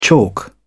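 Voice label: "chalk", British English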